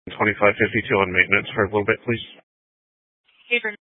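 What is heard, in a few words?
sheriff dispatch radio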